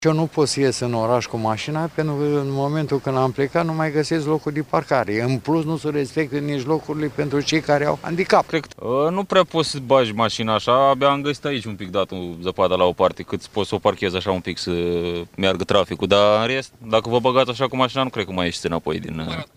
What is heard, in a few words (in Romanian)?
vox pop soferi; probleme cauzate de zapada si viscol in municipiul vaslui